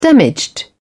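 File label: "damaged", British English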